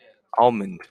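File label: "almond", American, male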